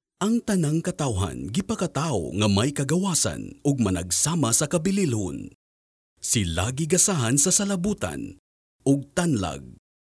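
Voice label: Cebuano male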